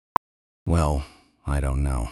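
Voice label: speaker male slow low, dont know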